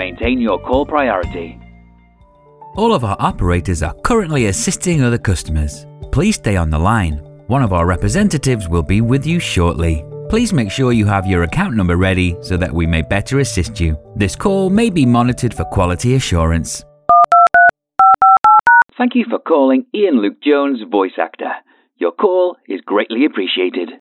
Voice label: IVR and Telephone on Hold